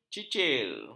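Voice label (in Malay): "cicir", Malay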